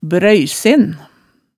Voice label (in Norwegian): "brøysen", hemsedalsmaalet tale